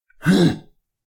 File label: guard male; SyrinScape; Waterdeep